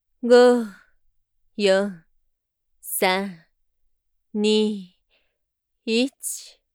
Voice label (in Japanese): count, 射精前カウントダウンボイス